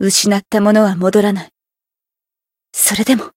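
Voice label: win, Japanese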